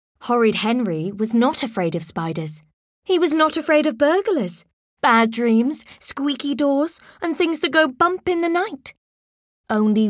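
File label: British English, Narration; GoLocalise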